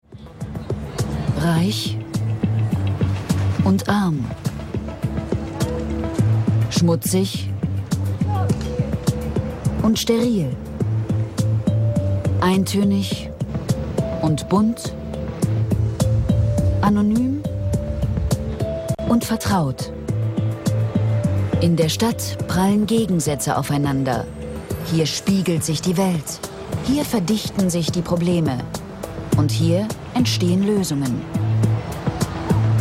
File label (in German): doku